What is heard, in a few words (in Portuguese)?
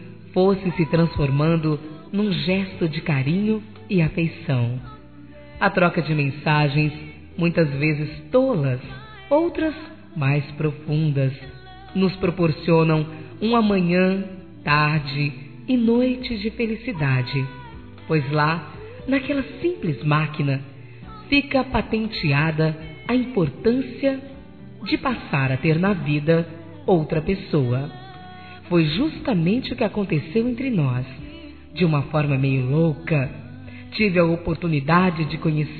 Amizade Virtual Feminino; Telemensagens; Telemensagens 2017